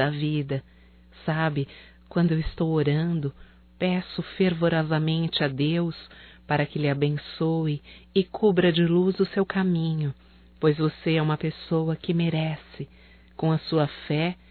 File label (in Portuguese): Irmã Feminino; Natal e ano novo; Telemensagens 2017